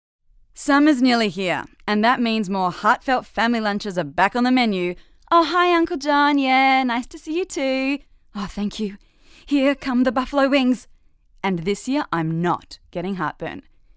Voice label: Commercial VO